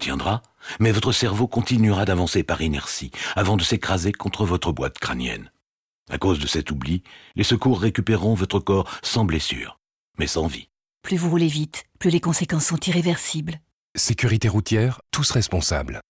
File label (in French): Spot radio, Campagne vitesse, L'Autoroute